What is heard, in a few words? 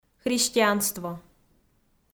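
"христианство", Komi, female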